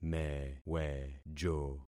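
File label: "mewejo", concatenated syllables; Affixing experiment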